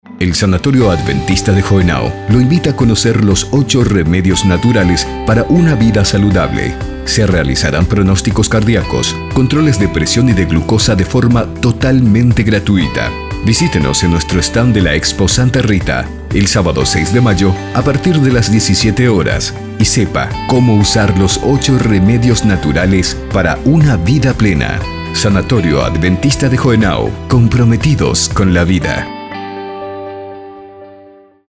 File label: Pub Radio ESP